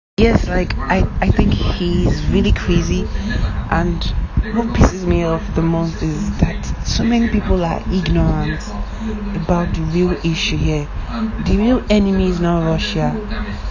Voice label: audio Message